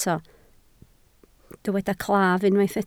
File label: "claf", Welsh